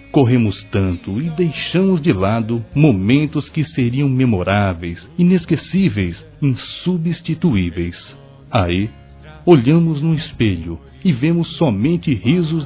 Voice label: perdoe-me (falta de tempo) m, Telemensagens, masc; Telemensagens 2017

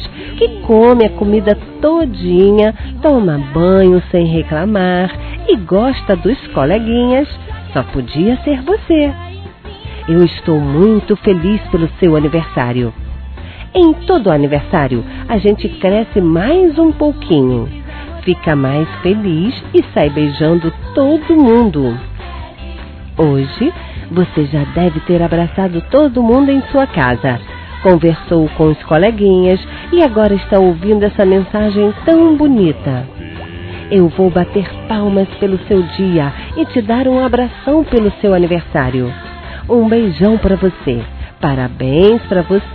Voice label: Neutro Criança Feminino; Aniversário Infantil; Telemensagens

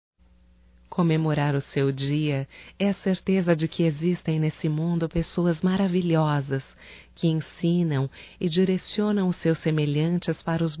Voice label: Dia Professor Feminino; Datas Mistas; Telemensagens 2017

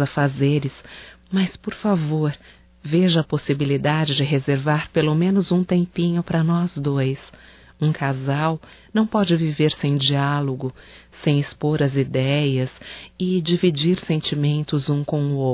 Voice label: QUERO SUA ATENÇÃO(F, Românticas fem

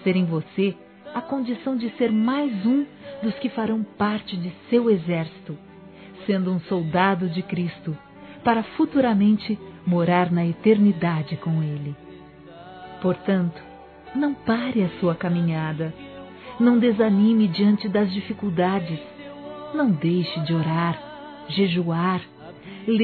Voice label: INCENT. SERVIÇO DEUS F, Telemensagens, Gospel, fem; Telemensagens 2017

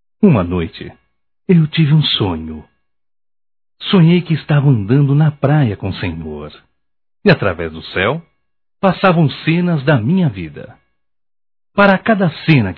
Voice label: Reflexão Masculino; Telemensagens; Só Voz; Pegadas na Areia; Telemensagens 2017

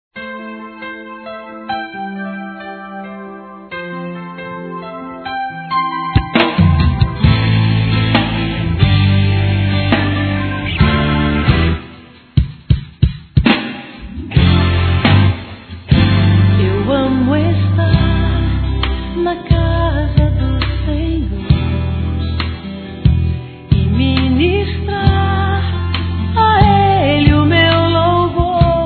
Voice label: romantica para esposo f, Telemensagens Gospel fem; Telemensagens 2017

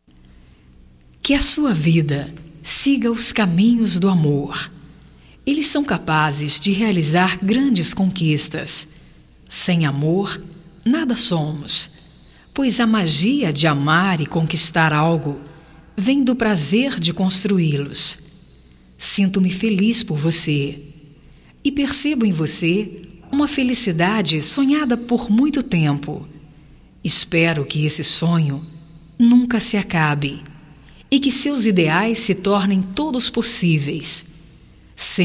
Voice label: VITORIA GERAL, fem

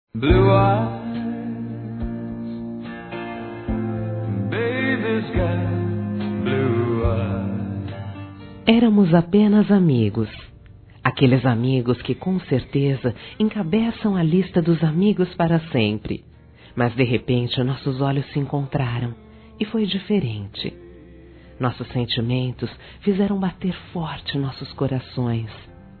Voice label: AMIZADE QUE VIROU AMOR F, Telemensagens

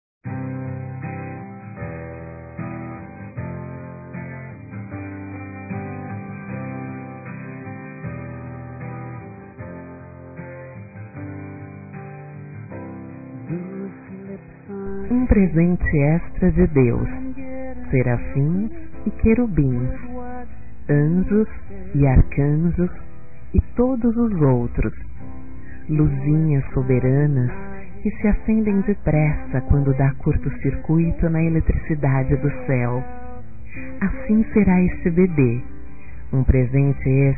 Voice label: Dia das Mães Futura Mães Feminino; Telemensagens 2017

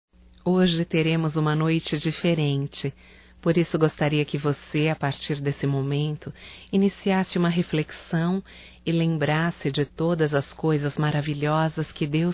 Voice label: Natal e Ano Novo Irmã Feminino; Telemensagens 2017

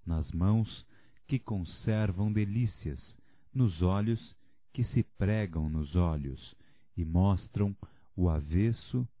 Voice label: Dia de Namorar Masculino, Voz; Telemensagens; Só Voz; Telemensagens 2017

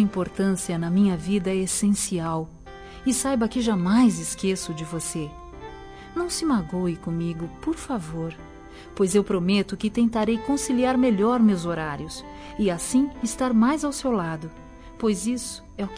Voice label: Perdoe-me pela falta de tempo, fem.; Perdão

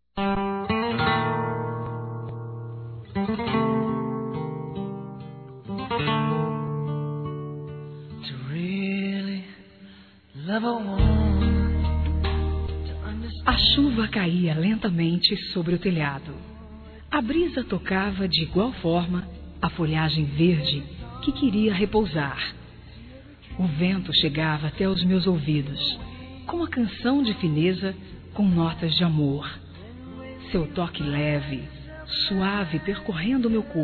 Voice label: ROMANTICA, Telemensagens Românticas fem

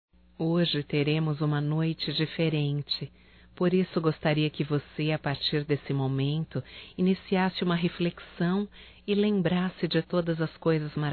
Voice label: Natal e Ano Novo Irmão Feminino; Telemensagens 2017

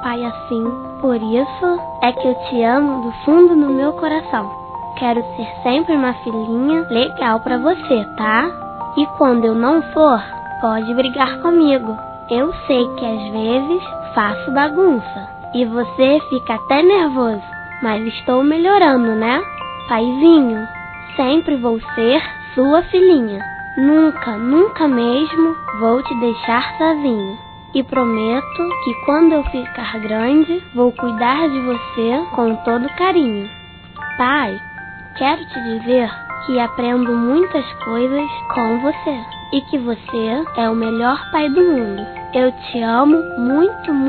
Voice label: Aniversário Pai Infantil Feminino; Telemensagens

